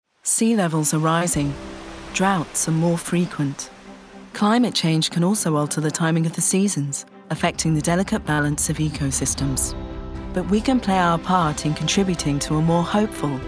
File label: Narration IMAGE VIDEO